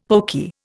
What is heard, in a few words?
"pokey", Indian; Dictionary